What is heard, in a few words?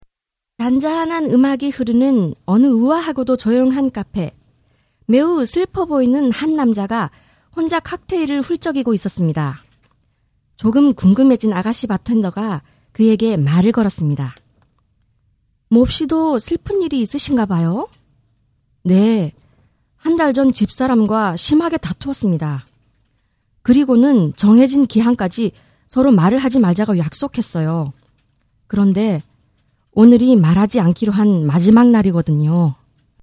story